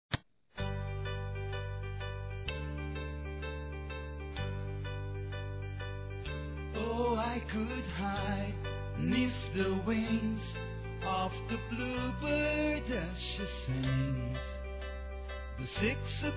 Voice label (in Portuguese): ANIV. ADOLESCENTE, Fem; Aniversários; ANIV. DE ADOLESCENTE; Telemensagens